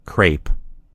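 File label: "crepe", American English; gcompris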